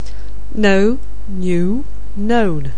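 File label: "know", English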